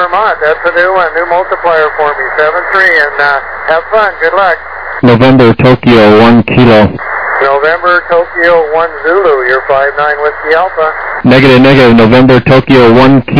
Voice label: USB, 28MHz; ARRL10M

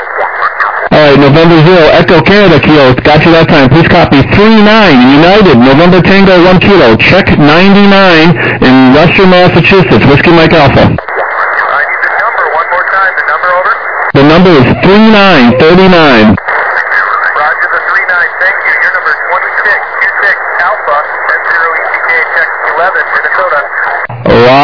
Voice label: USB, 21MHz

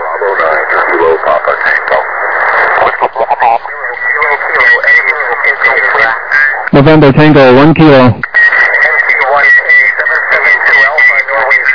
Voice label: USB, 14MHz

